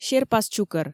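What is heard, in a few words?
"серпас чукӧр", Komi, female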